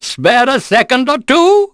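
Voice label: Vox Halloween Skill, voices heroes English; Oddy; kings raid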